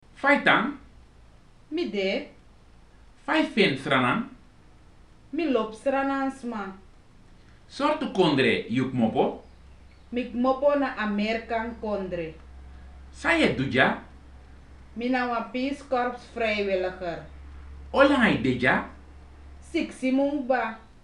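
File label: dialogue, Sranan; PeaceCorps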